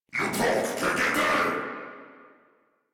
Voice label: Attack, en; Warframe; Corpus Amalgam Pilot